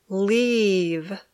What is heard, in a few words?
"leave", English